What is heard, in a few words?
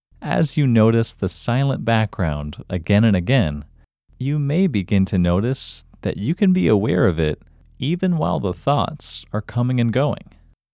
Locate IN Male